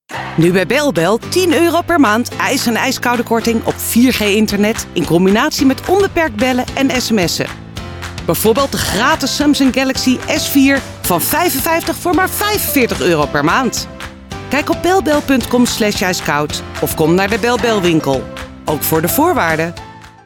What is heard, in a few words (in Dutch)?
Commercial high selling, voice over